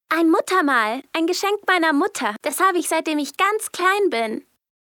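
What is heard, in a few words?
german female character; voice demos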